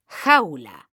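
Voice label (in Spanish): "JAULA", female; mekanta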